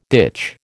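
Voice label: "ditch", American English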